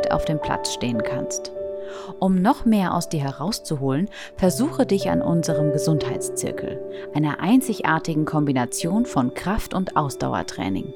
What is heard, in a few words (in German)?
Werbung Spotify Physiotherapie